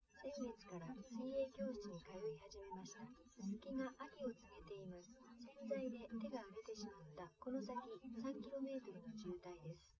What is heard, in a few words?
test female live rec; SiSEC11; underdetermined